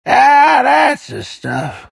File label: happy; gyrocopter